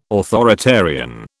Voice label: "authoritarian", British